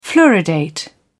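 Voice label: "fluoridate", British English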